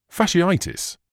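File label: "fasciitis", British English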